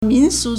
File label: "min2su2", Chinese